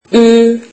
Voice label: turkish alphabet uu

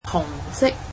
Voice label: "red", Cantonese

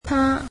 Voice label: "she", Cantonese

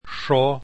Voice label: "sx", Esperanto alphabet

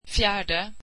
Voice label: swedish fourth

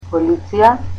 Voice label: "police" Macedonian

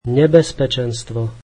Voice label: slovak danger

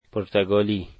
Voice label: "Portugal", dari

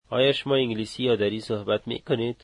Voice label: Do you speak Dari, Dari

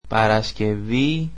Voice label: greek Friday

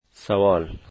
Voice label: question, dari

